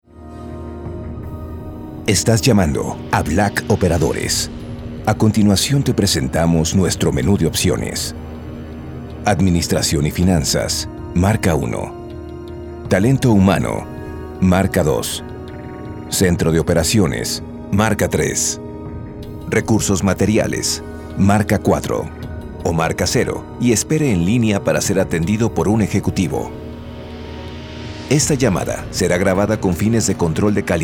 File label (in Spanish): IVR masculino